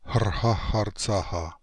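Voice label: "Hrhaharhtsaha", pronunciations; Fourth Way; Beelzebub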